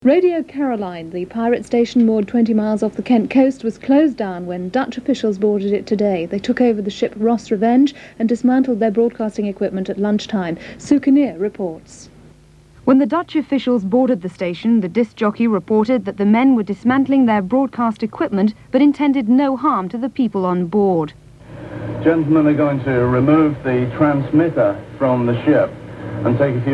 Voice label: news tv audio; anglia

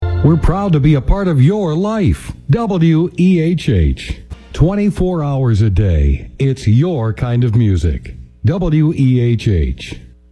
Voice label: stream jingles; wehh; wabh 1380; "we're proud to be a part of your life, WEHH; 1380 WABH Bath NY

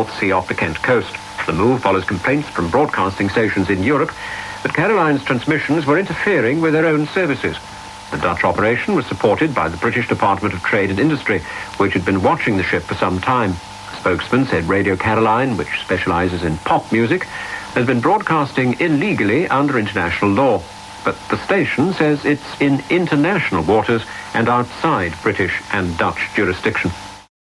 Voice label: news; bbc world service; caroline attack

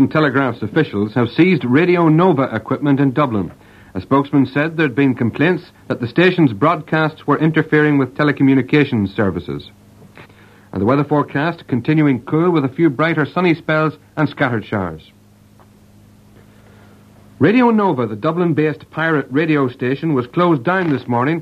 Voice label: news; rte news 1330

